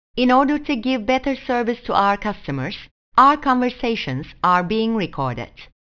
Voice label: English